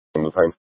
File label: Surprise Police Dispatch